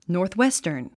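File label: "northwestern", English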